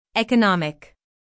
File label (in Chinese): 美籍外師念 economic